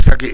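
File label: "sitage", Minamiyamagata dialect Japanese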